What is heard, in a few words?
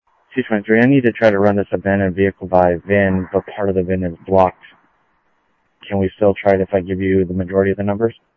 Sheriff Common, scanner audio trunk; MCSO - Info Sheriff - Common; ThompsonPeakScot